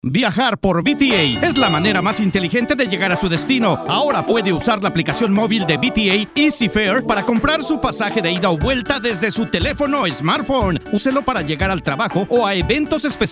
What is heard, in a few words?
Spanish Radio; VTA; Ez Fare